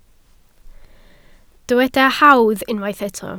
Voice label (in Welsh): "hawdd", Welsh